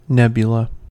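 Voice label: "nebula", English, American accent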